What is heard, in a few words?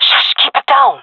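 request quiet, female voice; player vo jungle fem; Counter Strike Model Pack; CS:GO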